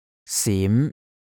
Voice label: "sim2", cantonese

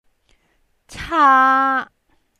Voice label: "caa1", Cantonese

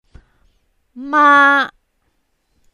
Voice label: "maa1", Cantonese

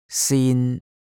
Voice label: "sin1" Cantonese

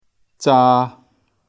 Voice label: "zaa3", Cantonese

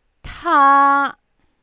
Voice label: "taa1", Cantonese

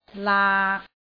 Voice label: "laak6", cantonese

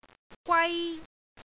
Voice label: "gwai1", cantonese

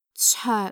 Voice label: "coek3", cantonese

